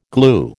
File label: "glue", American